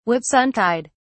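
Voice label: "whitsuntide", British English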